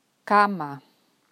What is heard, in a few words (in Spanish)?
"Cama", Spanish